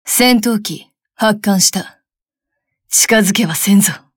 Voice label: Speech CatFight Launch; Kaga; wows